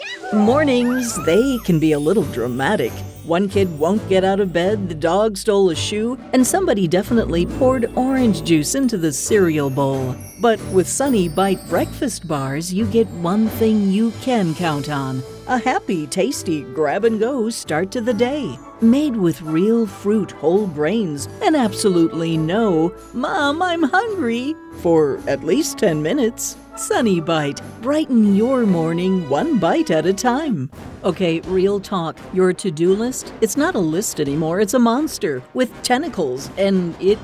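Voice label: Commercial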